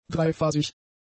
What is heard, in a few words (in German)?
"dreiphasig", German; adjectives